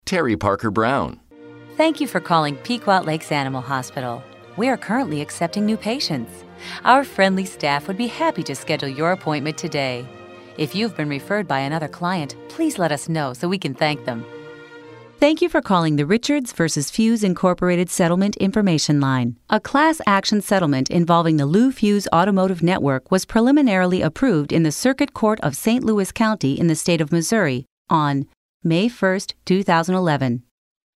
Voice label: IVR